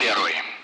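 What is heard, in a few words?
Speech, Russian; Allied Flight; DCS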